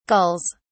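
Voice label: "gulls", British English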